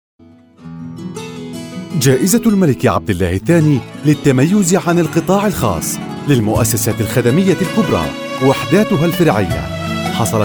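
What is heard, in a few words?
voice over Classical Arabic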